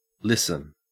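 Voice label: "listen", Australian English; pronunciations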